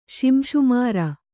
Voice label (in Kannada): "ಶಿಂಶುಮಾರ", Kannada